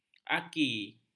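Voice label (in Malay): "aki", Malay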